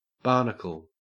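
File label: "barnacle", Australian English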